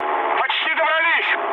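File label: near waypoint, mood med, Russian; War Thunder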